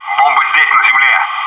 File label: "bombs on the ground here", bot